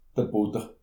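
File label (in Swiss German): "butter", Alsatian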